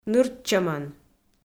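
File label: "нырччӧмӧн", Komi, female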